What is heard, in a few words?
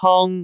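"hong1", Cantonese; google D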